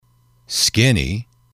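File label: "skinny", English, male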